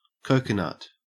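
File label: "coconut", Australian English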